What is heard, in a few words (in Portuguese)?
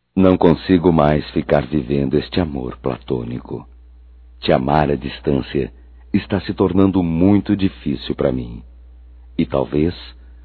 DECLARAÇÃO, masc; Declaração de amor; Telemensagens 2017